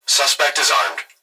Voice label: Emergency Human Young Male Voice Police Dispatch Suspect Is Armed; emergency effects